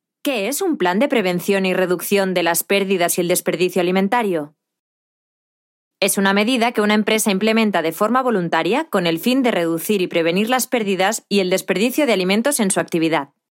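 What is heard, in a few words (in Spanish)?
e-learning, Spain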